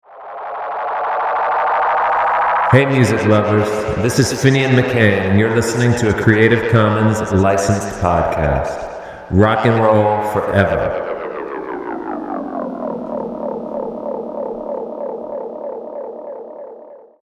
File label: podcast promo; cc podcast promo